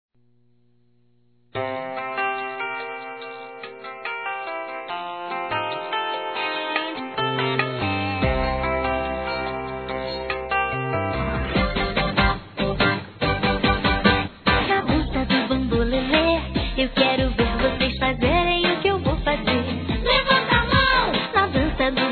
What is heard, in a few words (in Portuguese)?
Aniversário Irmã Feminino; Aniversário Infantil; Telemensagens